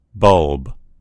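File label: "bulb", American English; gcompris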